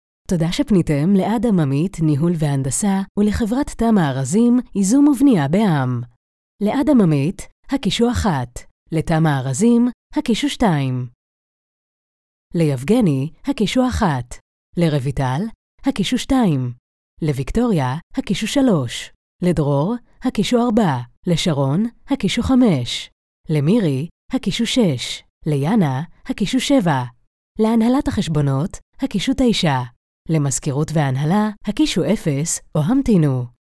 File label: hebrew ivr